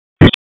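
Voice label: Raleigh Police North District Tactical, p25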